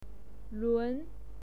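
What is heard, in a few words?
"lun", pinyin, second tone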